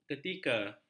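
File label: "ketika", Malay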